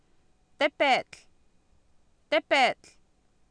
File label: "tepeetl", Oapan, female; Oapan minimal pairs 2000